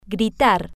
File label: gritar, Spanish